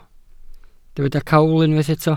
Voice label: "cawl", Welsh